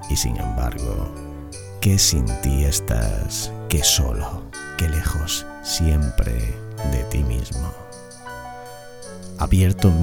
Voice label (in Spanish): poema romantico; juan ramon jimenez; soledad